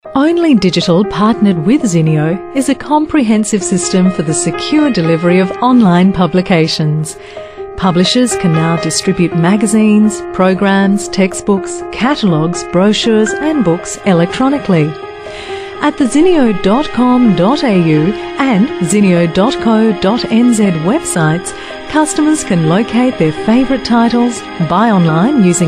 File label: female talent corporate